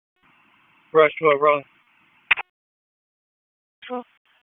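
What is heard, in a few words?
Police Northeast District Dispatch, Raleigh; wake; p25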